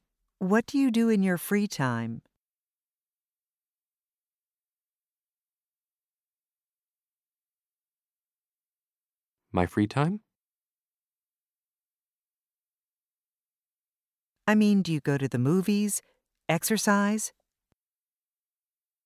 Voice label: Pronunciation Practice; Connectivity Foundation